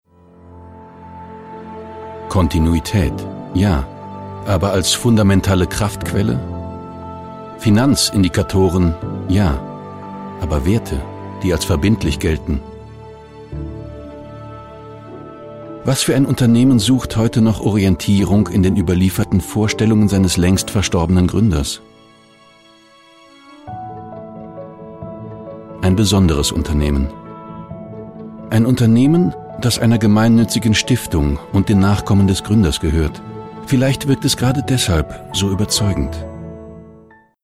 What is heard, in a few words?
male german commercial